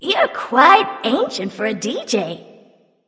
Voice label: taunt directed; Basscannon Neith; Zeus; Smite